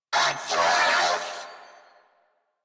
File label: Attack, Female; New War; Narmer Grineer